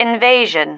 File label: "invasion", female